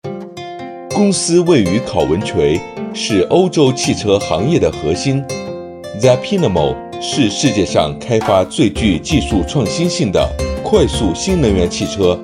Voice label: Chinese Mandarin